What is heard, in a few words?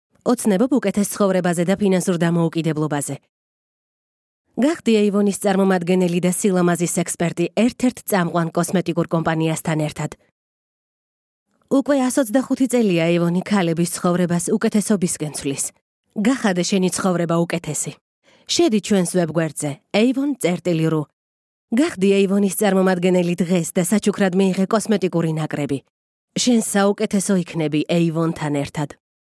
Georgian